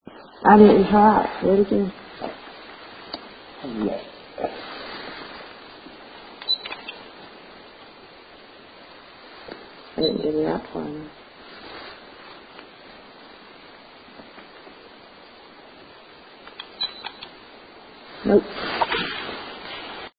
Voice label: female voice no; Opera House Stage

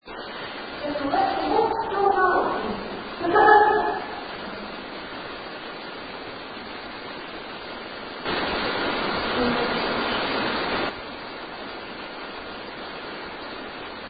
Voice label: female voice amplified come with me; museum noise

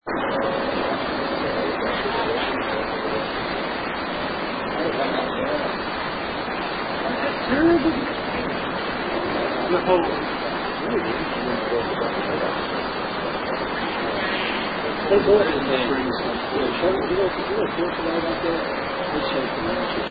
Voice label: Cemetery Headstone Unexplained Male; Sinking Springs Cemetery; Col. Samuel Folkerson Headstone; Unexplained Male Voice

